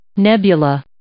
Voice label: "nebula", English